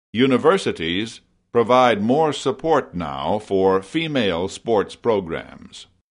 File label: FEMALE